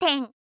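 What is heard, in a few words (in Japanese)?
"ten", Japanese, girl; voice; info girl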